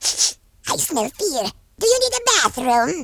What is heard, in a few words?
coward, Horror